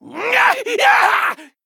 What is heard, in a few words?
Male Human Attack